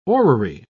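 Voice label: "orrery", British English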